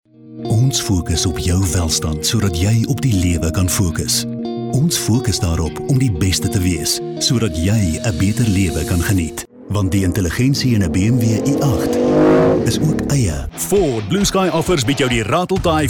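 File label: Afrikaans, male, voice over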